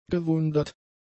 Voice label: "gewundert", German; adjectives